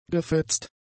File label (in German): "gefetzt", German; adjectives